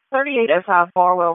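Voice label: Dispatch 1, P25; WSO DISP 1; wake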